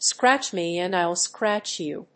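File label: "Scratch me and I'll scratch you.", English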